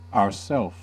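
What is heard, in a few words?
"ourself", American English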